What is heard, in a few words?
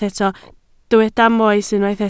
"moes", Welsh